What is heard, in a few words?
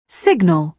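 "signal", British English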